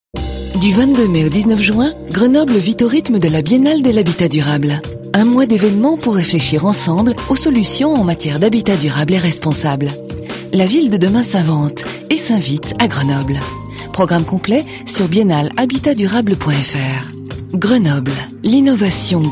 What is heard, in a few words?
radio informative; biennale de lhabitat